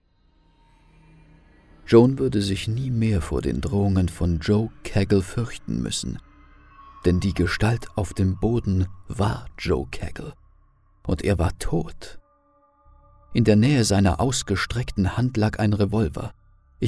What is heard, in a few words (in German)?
Hoerbuch; Schatten der Bestie